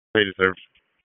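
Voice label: Public Safety Tactical, p25; wake p25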